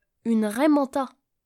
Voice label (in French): raie manta, sounds-fr